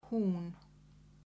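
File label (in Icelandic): "hún", Icelandic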